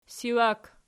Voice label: "siwak", Archi

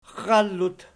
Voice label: "xxallut", Archi

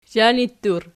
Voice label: "zhanittur", Archi

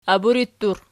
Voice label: "aburittur", Archi

